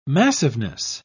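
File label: "massiveness", British English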